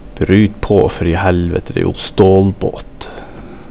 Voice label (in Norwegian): Senja dialekt